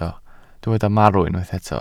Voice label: "marw", welsh